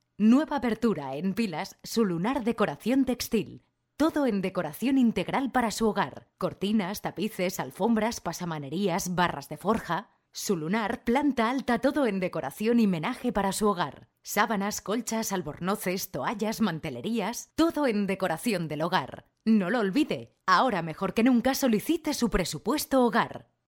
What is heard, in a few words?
spanish female voiceover